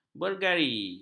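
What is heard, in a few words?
"bergari", Malay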